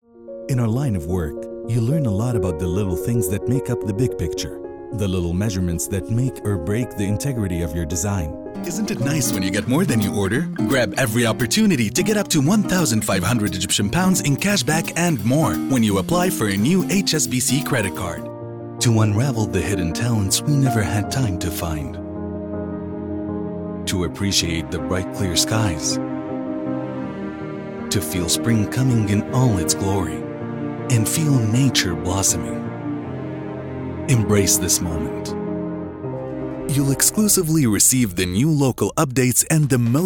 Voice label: Rocking Recliners English